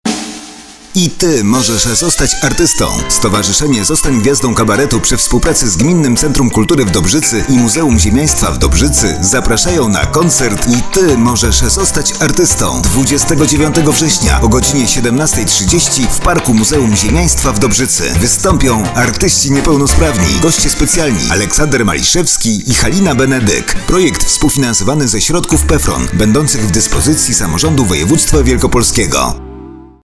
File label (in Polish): spot radiowy; Dobrzyca